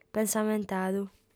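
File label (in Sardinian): "pensamentadu", female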